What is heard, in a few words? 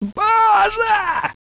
fear